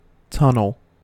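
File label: "tunnel", American English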